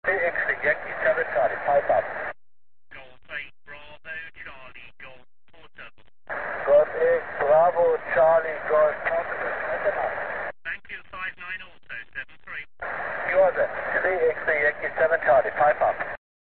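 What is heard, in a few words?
single sideband contact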